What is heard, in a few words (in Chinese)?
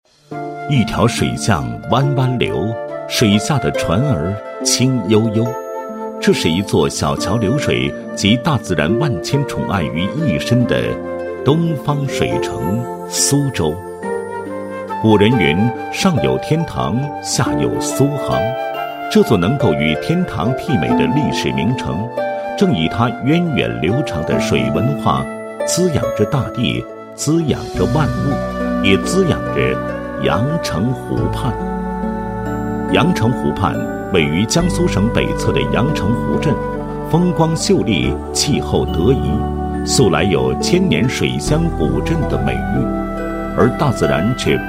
男 企业, 配音; 苏州相城区荷叶洲商贸有限公司出品